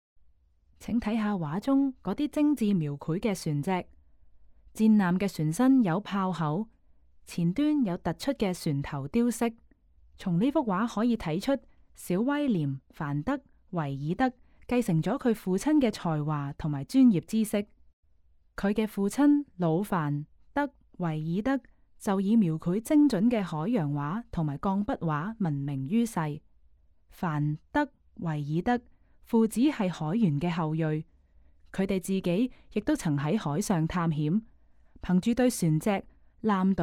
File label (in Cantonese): Canto; audio guide